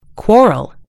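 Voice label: "quarrel", American English; gcompris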